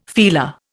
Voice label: "feeler", Indian